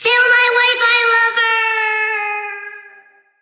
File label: Troll VO Fall Quip